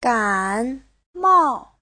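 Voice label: "gǎnmào", Mandarin Chinese; 感冒; (động từ) bị cảm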